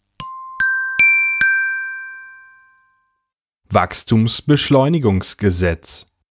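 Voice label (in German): "Wachstumsbeschleunigungsgesetz", German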